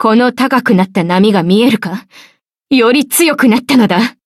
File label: upgrade, ja; cookie run